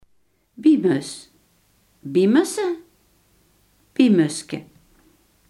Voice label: "koolmees", Limburgs

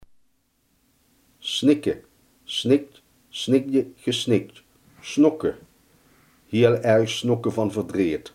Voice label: "snikken", Limburgs

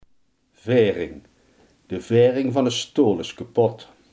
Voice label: "vering", limburgse

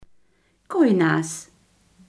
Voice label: "koukleum", Limburgs

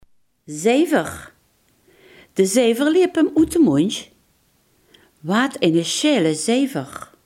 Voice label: "zever", limburgse

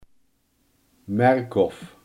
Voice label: "vlaamse gaai", limburgse

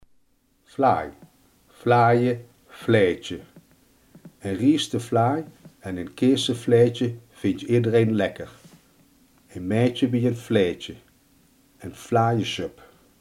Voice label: "vlaai", limburgse

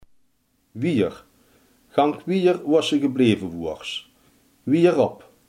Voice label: "verder", limburgse

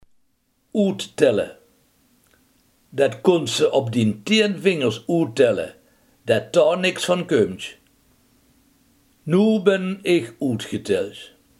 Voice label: "uittellen", limburgse

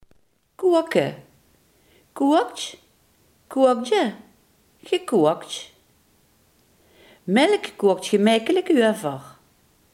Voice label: "koken", Limburgs

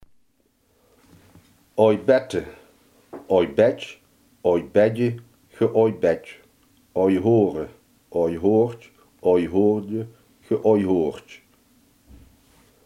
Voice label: ouwehoeren

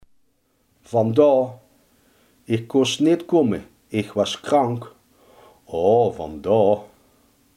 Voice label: "vandaar", limburgse

